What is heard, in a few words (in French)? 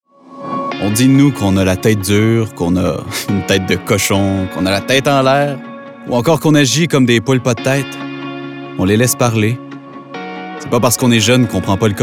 personnage serieux fr qc naturel affirme conversation; covid 19 gouv du qc; quotidienne